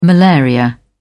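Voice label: "malaria", British English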